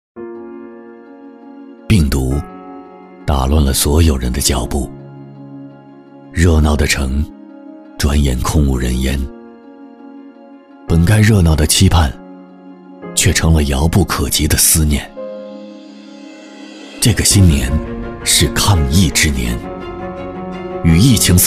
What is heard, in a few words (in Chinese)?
男 公益广告 走心; 高端品质; 沉稳大气